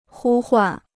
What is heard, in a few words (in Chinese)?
"呼唤", Chinese